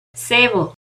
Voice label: "ceboh", Náhuatl; NAHUATLAHTOLLI

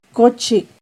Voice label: "cochi", Náhuatl; dormir; INALI; UTexas; NAHUATLAHTOLLI

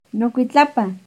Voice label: "nocuitlapan", Náhuatl; mi espalda; NAHUATLAHTOLLI

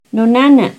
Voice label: "nonanan", Náhuatl; mi mamá; NAHUATLAHTOLLI

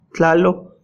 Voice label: "tlajlotl", Náhuatl; venas; veins

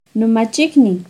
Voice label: "nomachicniuh", Náhuatl; mi primo(a); INALI; UTexas; NAHUATLAHTOLLI

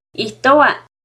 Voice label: "ihtoa", Náhuatl; hablar (algo); NAHUATLAHTOLLI

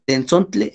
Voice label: "tentsontli", Náhuatl; cabra; goat

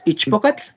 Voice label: "ichpokatl", Náhuatl; señorita; young woman

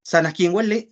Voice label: "sanajkiueli", Náhuatl; cualquiera; any

